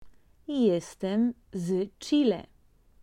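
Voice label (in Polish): "jestem z chile", Polish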